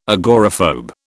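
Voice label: "agoraphobe", British English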